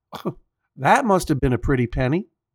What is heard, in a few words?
Dad complimenting your hardwood floors; Foley Library; People Sounds; Judge John Hodgman